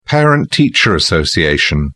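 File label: "parent teacher association", British English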